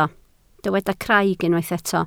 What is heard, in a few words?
"craig", Welsh